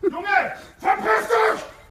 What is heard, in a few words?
angry; spackenmobs